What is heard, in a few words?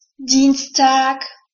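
"Dienstag", German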